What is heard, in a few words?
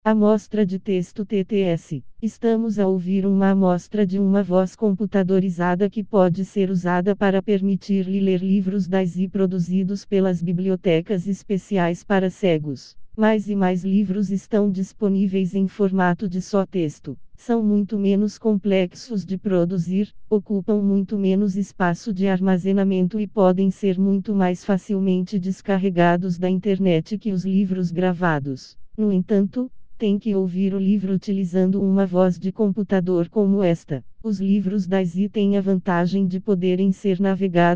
portuguese brazil Female; raquel